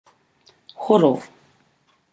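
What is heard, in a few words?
"horo", Maori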